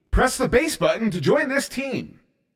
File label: Press Button To Join, Announcer